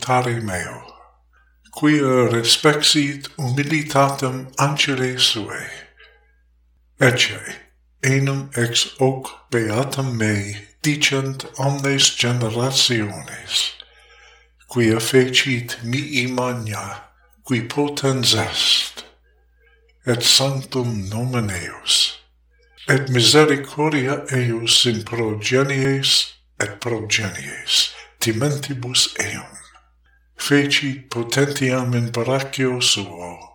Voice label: magnificat anima mea dominum latin only; preces catholicae sacrosanctae; traditional catholic prayers in latin only